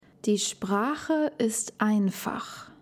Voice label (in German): DaF Aussprache Ach laut Übung